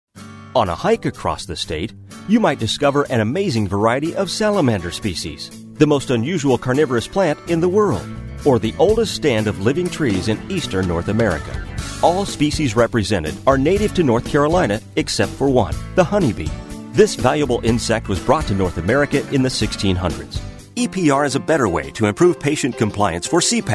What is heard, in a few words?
Narration